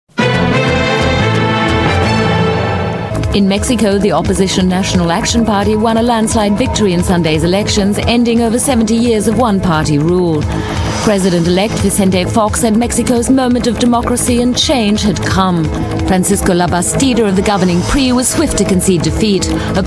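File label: Australian documentary, englisch; sprecher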